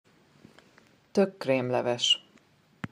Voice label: Tökkrémleves Smooth creamy pumpkin soup, hungarian